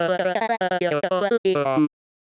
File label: medium clear scrappy, voice archetypes; voxel audio preview